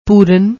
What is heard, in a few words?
"pooran", Punjabi